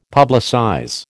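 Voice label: "publicize", American English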